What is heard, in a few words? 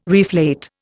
"reflate", Indian